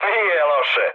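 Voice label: Serbian trick mood high; War Thunder; speech srb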